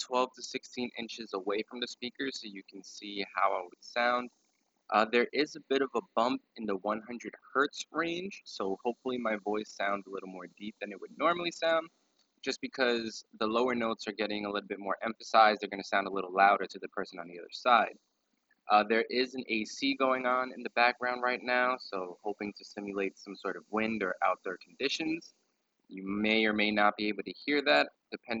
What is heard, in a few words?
Sony SRS XB32 vocal sample